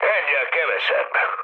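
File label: player killed enemy, mood low, Hungarian; speech; War Thunder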